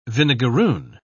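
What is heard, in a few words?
"vinegarroon", English, British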